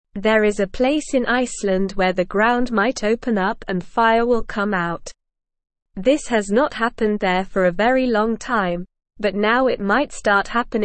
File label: English Newsroom Beginner SLOW Reading; Fire Danger in Iceland People Forced to Leave